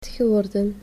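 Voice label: "geworden", Dutch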